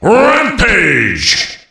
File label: rampage, Announcer Voice Lines; Unreal Tournament; ut2003